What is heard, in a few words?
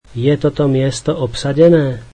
slovak Is this seat taken